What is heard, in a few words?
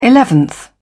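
"eleventh", British English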